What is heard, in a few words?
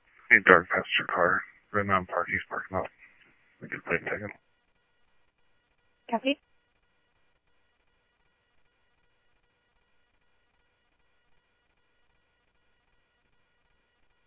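Mesa Simulcast Police Department; MESA PD Superstn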